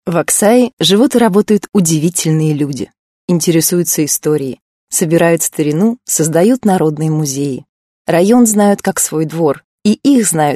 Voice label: russian female